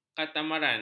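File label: "katamaran", Malay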